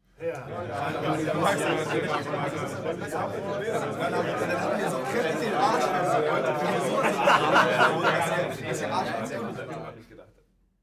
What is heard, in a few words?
talk happy short